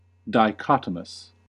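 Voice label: "dichotomous", US English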